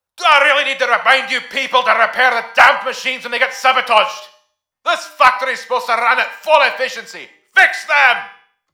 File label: repair reminder angry; svencoop; mustard; maxwell